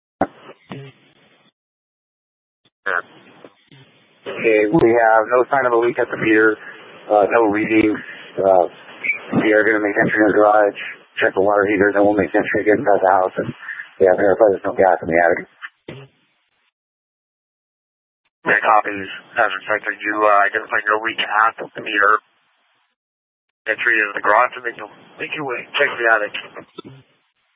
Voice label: Fire Departments VHF 154.800 Patch, Mesa Simulcast; TOPAZ